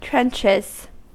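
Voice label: "trenches", US English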